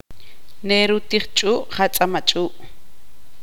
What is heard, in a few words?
tzutujil; mart-n pescador comer pescado